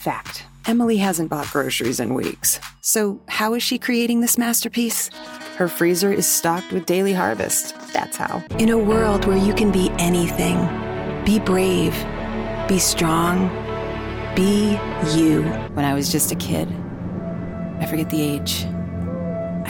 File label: commercial work samples